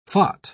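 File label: "phot", British English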